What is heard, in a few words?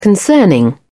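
"concerning", British English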